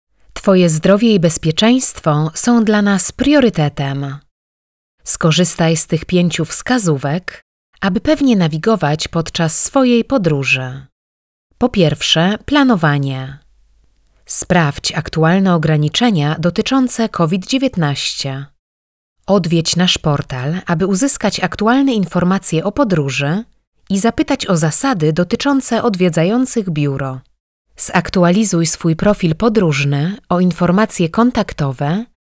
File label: Female Polish Voice ELearning